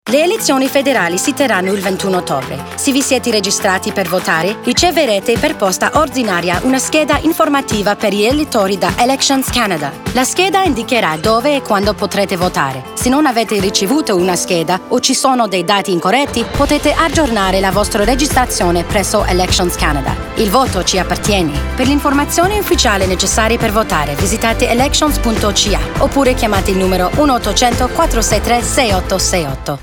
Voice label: Voter information Card italian